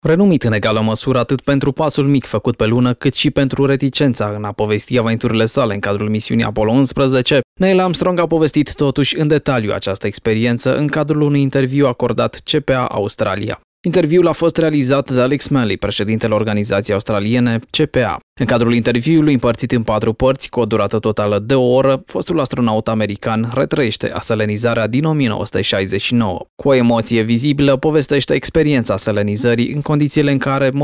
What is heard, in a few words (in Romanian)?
naratie